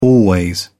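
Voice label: "always", British English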